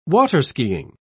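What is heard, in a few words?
"water-skiing", British English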